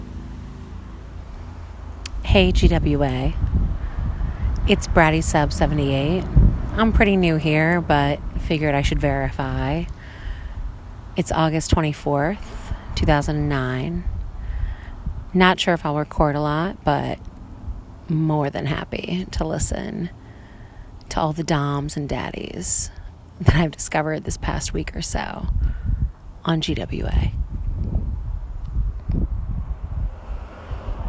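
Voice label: Female Verification; verification for GWA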